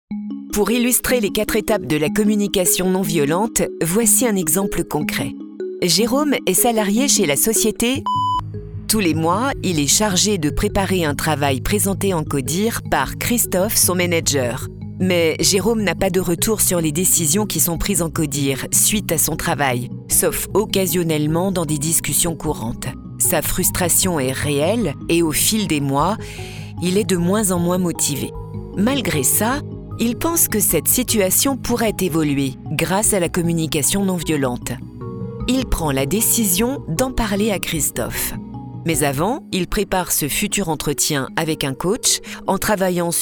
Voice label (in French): comedienne voix off podcast